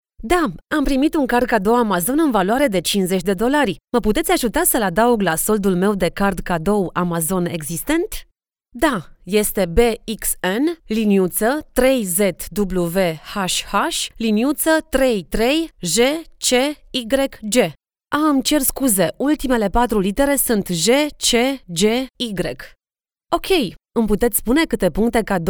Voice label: Character